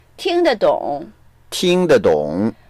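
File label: "ting1dedong3", Mandarin Chinese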